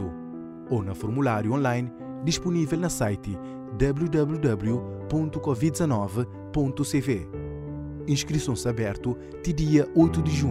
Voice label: Spot Alargamento da inscrição para vacina audio crioulo

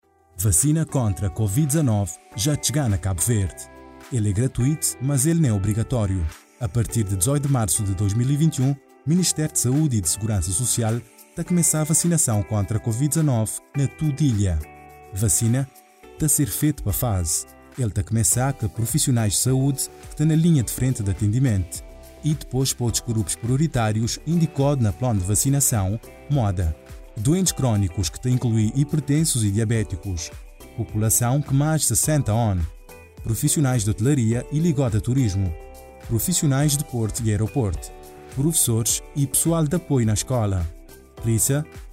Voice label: Spot Radio Barlavento

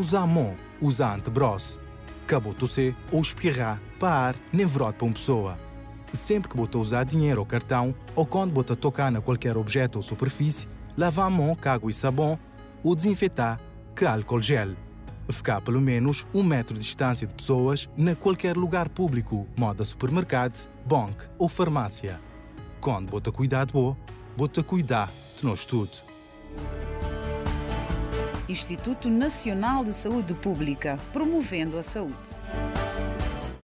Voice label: Cuidado ao sair de casa crioulo Barlavento

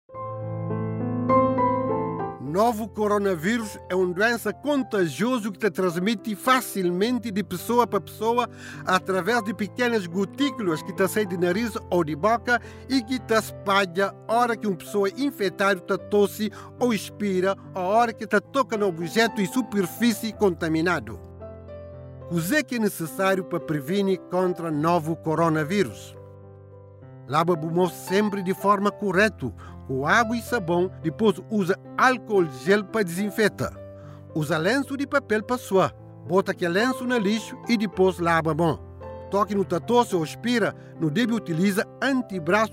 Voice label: spot radio mensagem em kriolo

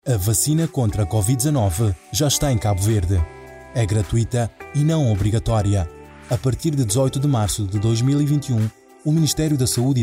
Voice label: Spot Radio Portugues

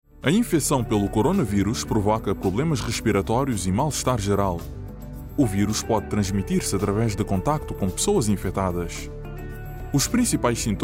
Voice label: spot corronavirus versão radio

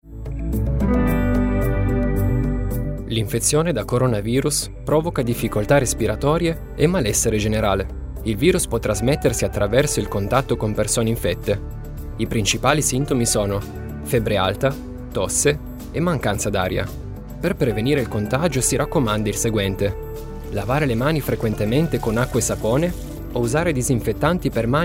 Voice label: spot corronavirus versão radio italiano

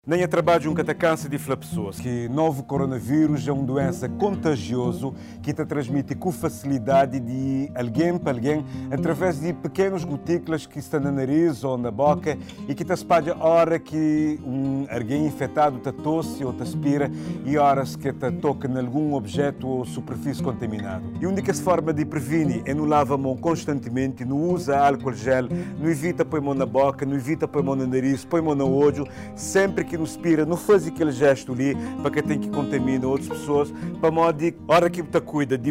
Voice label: Spot transmissão figura pública

